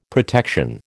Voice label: "protection", American